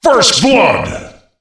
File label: first blood, Announcer Voice Lines; Unreal Tournament